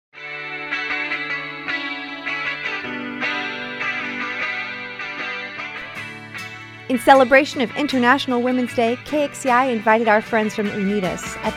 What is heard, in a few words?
Comedy; IWD 2019